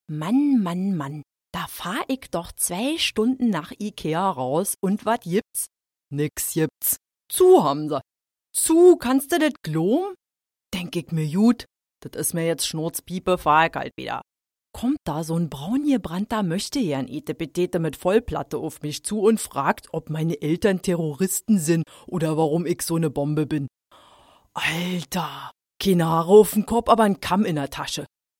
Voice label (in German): Dialekt Berlinerisch